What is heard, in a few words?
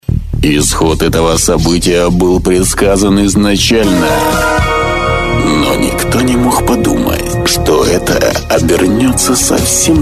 rus